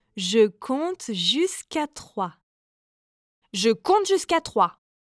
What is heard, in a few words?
french mom phrases